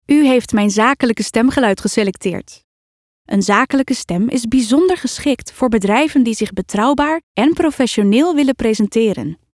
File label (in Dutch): Zakelijk